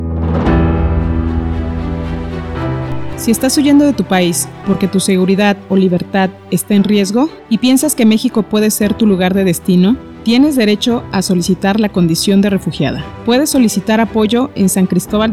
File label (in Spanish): spot alerta humanitaria fondo musical libre